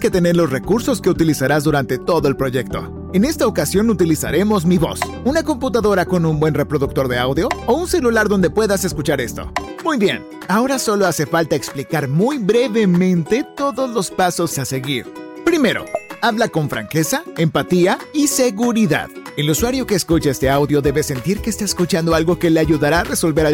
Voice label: spanish latin american e-learning

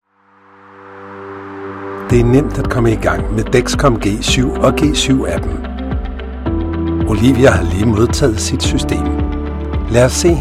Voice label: danish medical narration

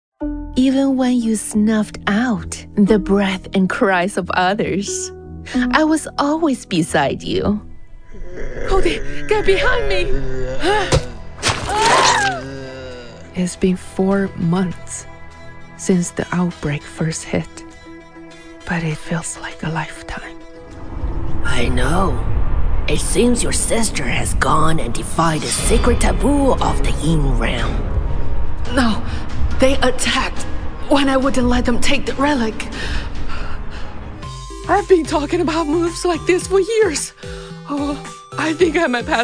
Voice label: english video games

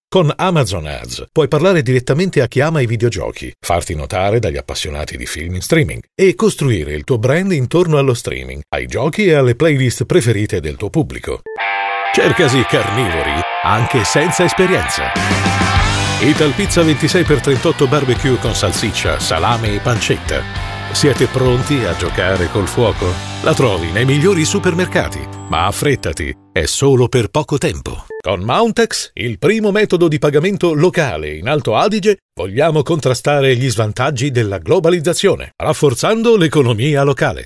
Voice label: italian commercial, male

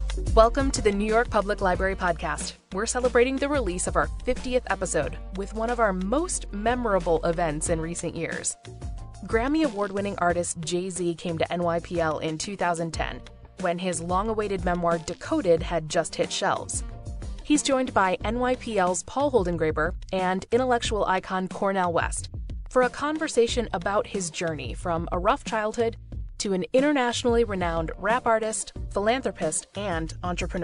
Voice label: english american podcasts

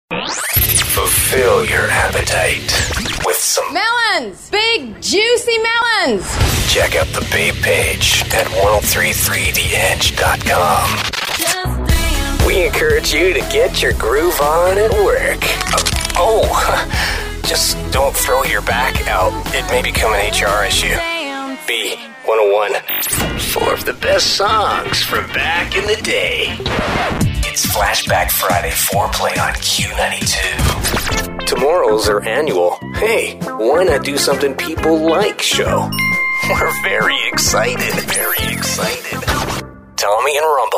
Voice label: english american promos

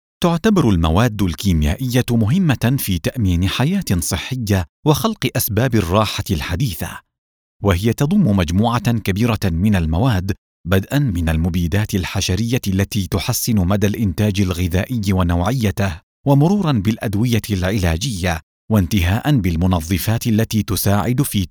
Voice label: arabic e-learning

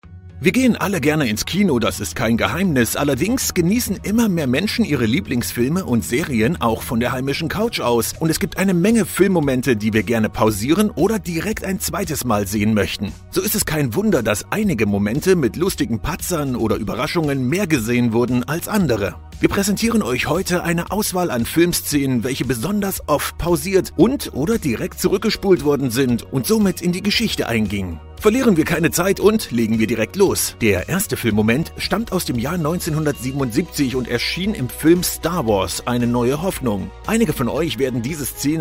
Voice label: german podcasts; Guten Tag

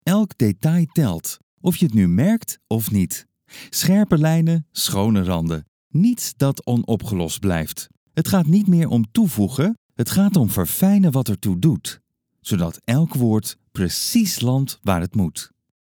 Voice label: dutch narration

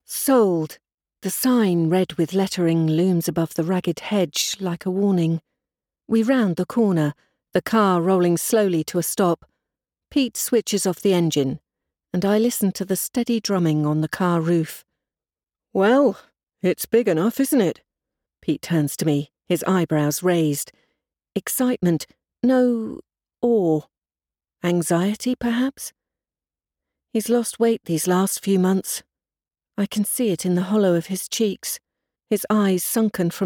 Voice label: english british audiobooks, british voice actors

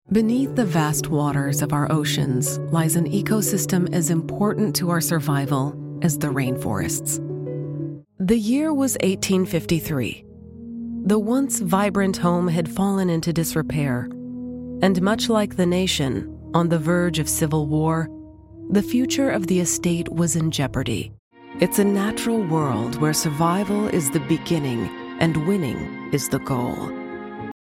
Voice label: english canadian documentaries

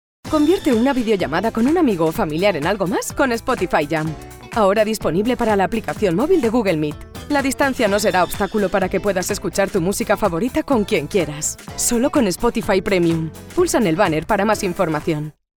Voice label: spanish promos

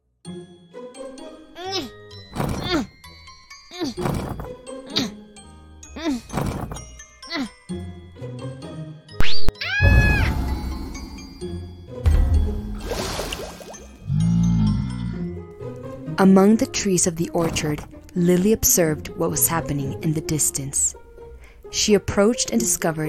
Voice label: english american video games

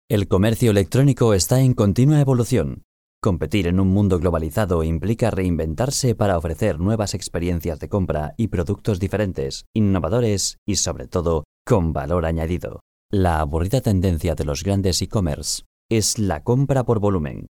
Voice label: spanish e-learning

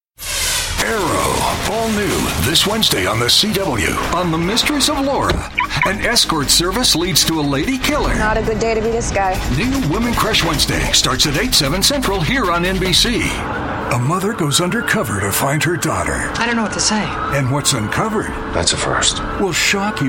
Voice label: English American tv shows

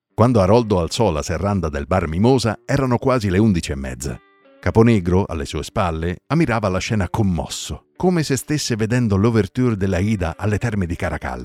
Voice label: italian audiobooks

